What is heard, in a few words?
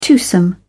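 "twosome", English, British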